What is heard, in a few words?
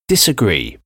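"disagree", English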